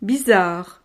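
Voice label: "bizarre", French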